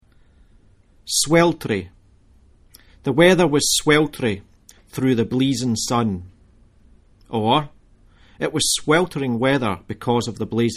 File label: "sweltrie", Scots